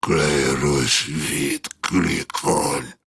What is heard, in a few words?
Idle Grineer Ghoul Sarge, en; Grineer Ghoul Drill Sargeant; Battle Barks